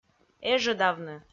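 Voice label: "эжӧдавны", Komi, female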